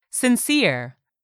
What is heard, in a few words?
"SINCERE", english; 3000 words